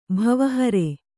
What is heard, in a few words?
"ಭವಹರೆ", Kannada